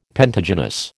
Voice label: "pentagynous", American